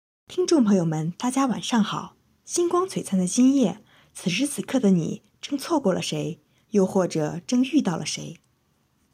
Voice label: 温暖电台音

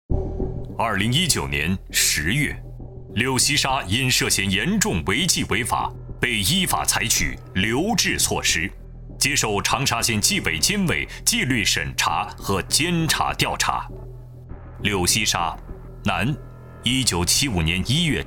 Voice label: 专题记录解说旁白政府政治法律正风肃纪题材

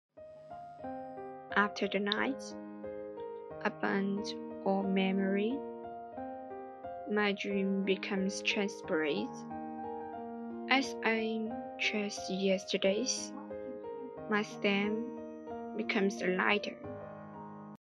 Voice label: 英语

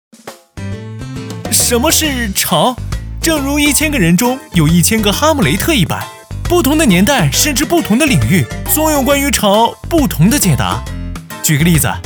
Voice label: 诙谐幽默样音

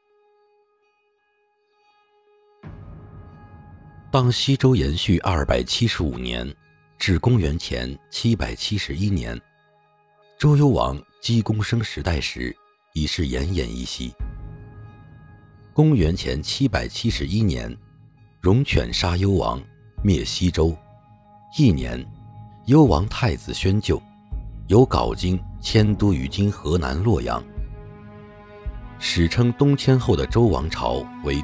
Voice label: 春秋历史类样音

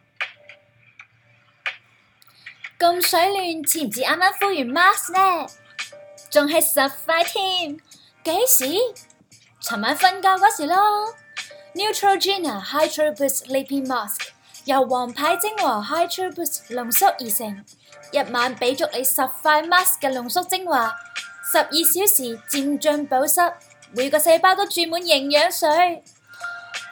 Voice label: 粤语 广告; 露得清

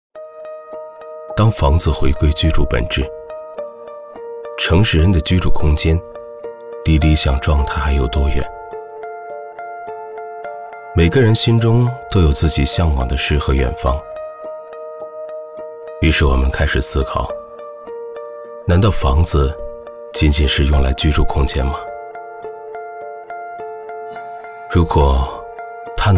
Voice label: 样音 走心广告

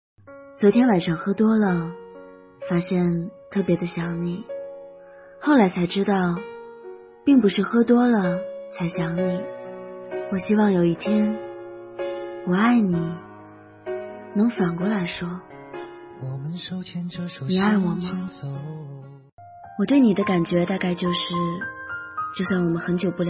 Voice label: 情感读文电台

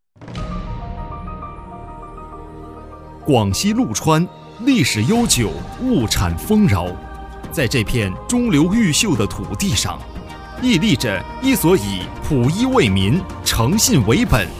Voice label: 政企宣传

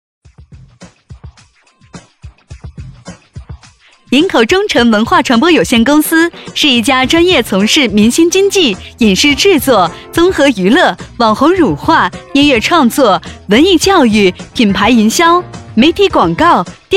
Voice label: 轻快 活泼

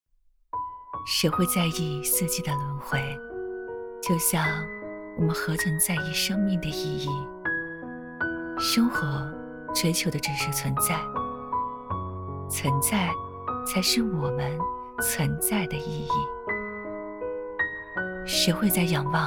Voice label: 四季旁白

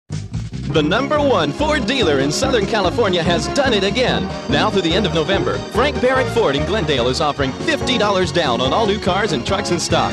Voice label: 欢快活力广告宣传

